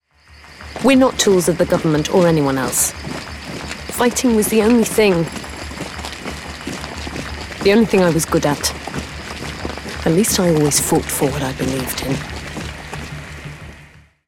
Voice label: Animation Fighting

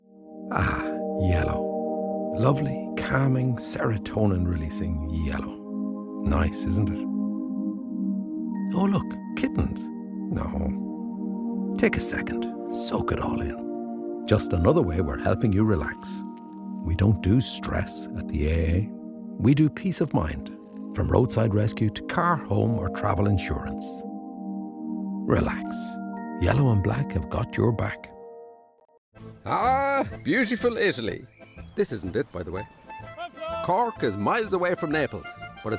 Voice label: Commercial Reel; Natural Accent: Irish

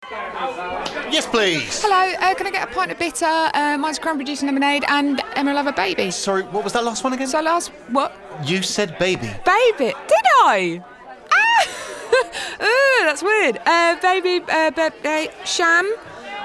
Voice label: commercial

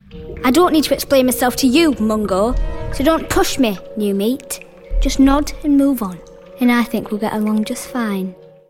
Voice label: Northern

